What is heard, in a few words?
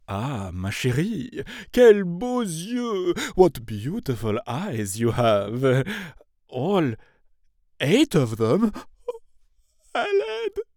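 French, male, English, character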